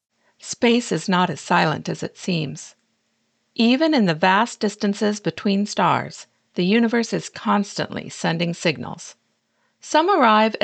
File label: Educational